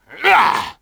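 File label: Twi male attack; XBOX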